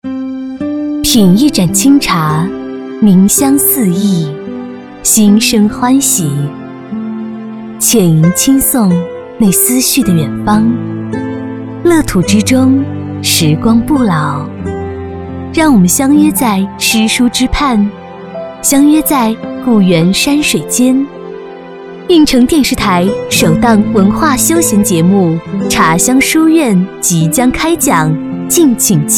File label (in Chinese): 广告 柔美; 茶香书院